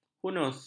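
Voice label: "hunus", Malay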